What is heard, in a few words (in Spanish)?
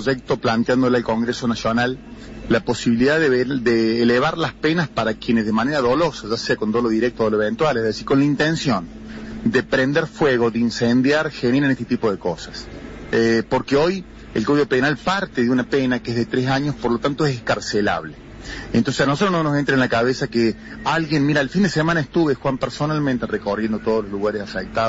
Radio Incendio Forestal; Radio Cadena 3 Argentina